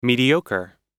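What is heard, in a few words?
"mediocre", American English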